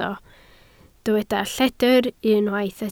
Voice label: "lledr", Welsh

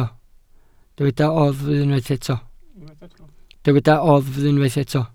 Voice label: "oddf" Welsh

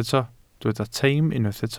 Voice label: "teim" Welsh

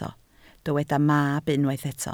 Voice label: "mab", Welsh

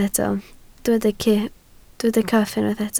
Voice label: "cyff", Welsh

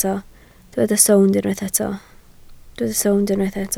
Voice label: "sownd", Welsh

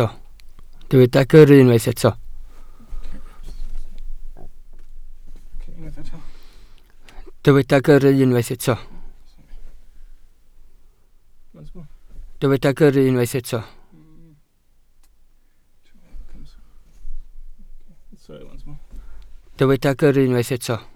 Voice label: "gyrru", Welsh

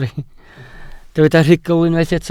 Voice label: "rhugl", Welsh

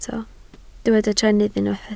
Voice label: "trennydd", Welsh

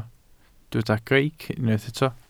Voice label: "greic", Welsh

